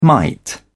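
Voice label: "mite", British English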